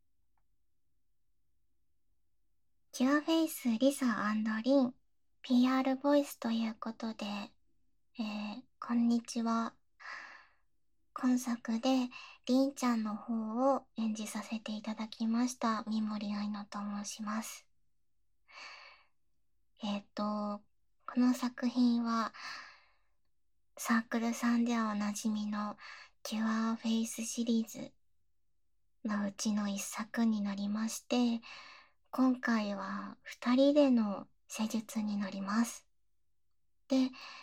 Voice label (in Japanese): PRボイス; Cure Face-里咲&燐; 立体音響